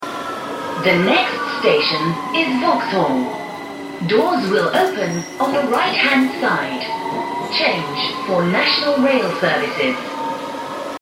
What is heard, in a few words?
Next Vauxhall, Underground Line Victoria